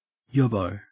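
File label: "yobbo", Australian English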